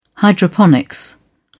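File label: "hydroponics", British English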